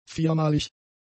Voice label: "viermalig", German; adjectives